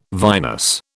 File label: "vinous", British